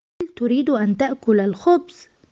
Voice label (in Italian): Arabo